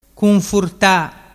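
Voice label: "cunfurta", Vernazzese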